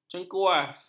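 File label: "cengkuas", Malay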